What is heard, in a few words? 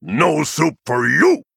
Male Tuskarr Attack